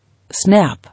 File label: "Snap", English